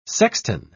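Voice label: "sextan", British English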